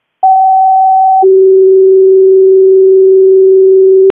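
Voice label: Fire Department alert, P25; wake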